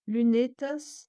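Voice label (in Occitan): "Lunetas", Gascon; Prêts pour l’Òc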